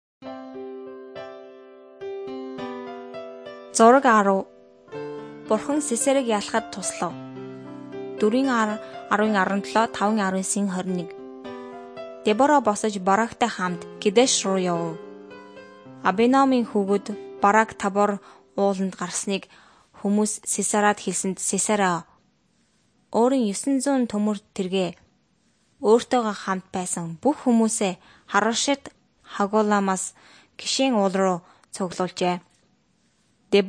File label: mongolian